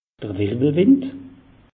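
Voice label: "wirwwelwind", Alsatian; meteo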